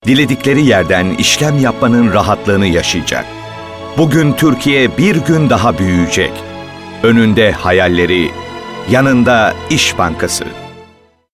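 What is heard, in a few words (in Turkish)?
kurumsal imaj, seslendirme; enerjik tempolu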